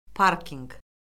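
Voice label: "parkinq", Azerbaijani; Orfoqrafiya Lüğəti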